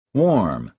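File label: "warm", American English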